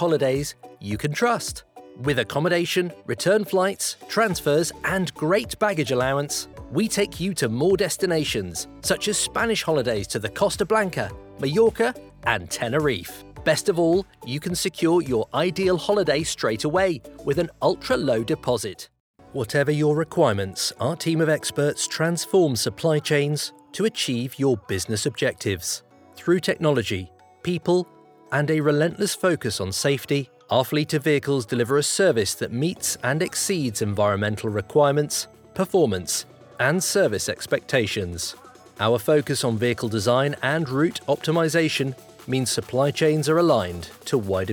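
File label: Commercial Reel no intro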